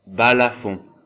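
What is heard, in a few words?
"balafon", French; Mots francais phonemes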